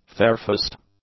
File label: "fairfaced", english; adjectives